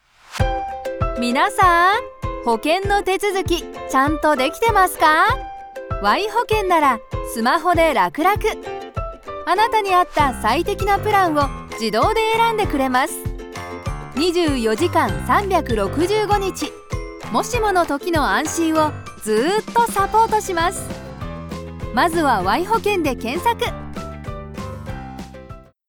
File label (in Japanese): 保険CM 明るめ; ボイスデモ